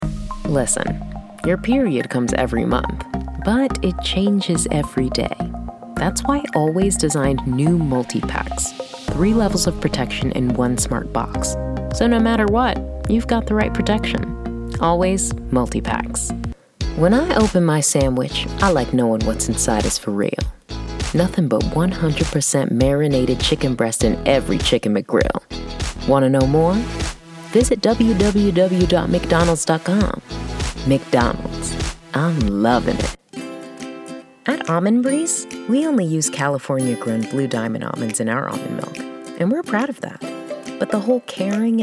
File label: voice over commercial